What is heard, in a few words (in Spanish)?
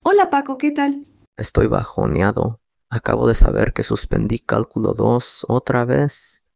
Dialogo, espanhol; como dizer que você não está bem em espanhol